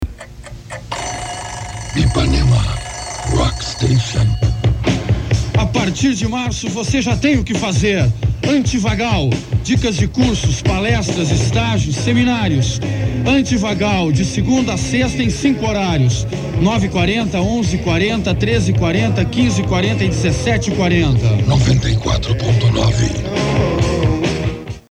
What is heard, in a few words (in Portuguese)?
spot; IpanemaFM